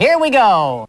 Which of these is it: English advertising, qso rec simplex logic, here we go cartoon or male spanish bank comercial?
here we go cartoon